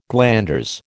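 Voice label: "glanders", American English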